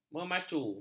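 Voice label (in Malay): "memacu", Malay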